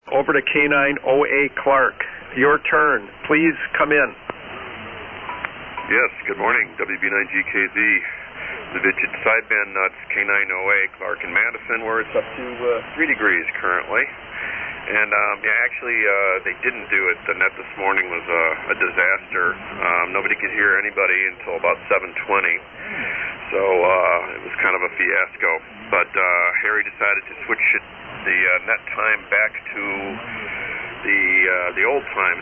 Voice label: Collins 32S-3 75S-3 & 30L-1 Amp; Madison,Wi.